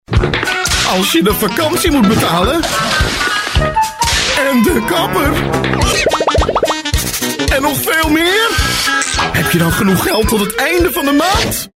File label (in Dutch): angstig, emoties